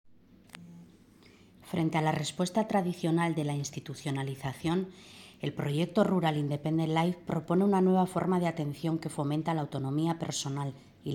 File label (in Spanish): castellano; técnica